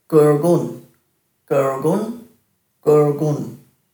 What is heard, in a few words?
"gurgun", Dhurga